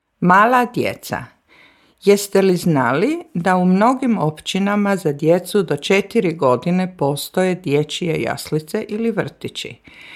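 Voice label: Croatian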